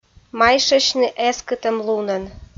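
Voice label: "майшасьны эскытӧмлунӧн", Komi, female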